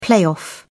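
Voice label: "playoff", British English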